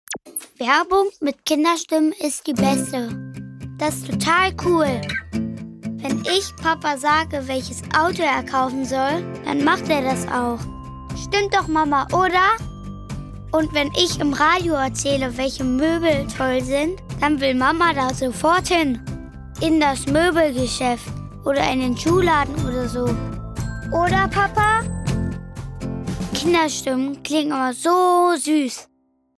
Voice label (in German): Werbung Kinderstimmen